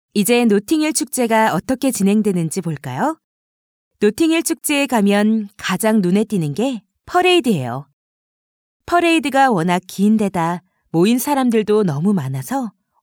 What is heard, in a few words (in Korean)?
기본톤 NA